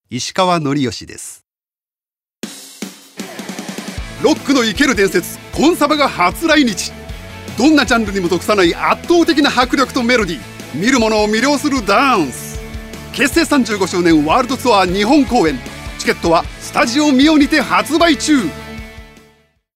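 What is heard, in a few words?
commercial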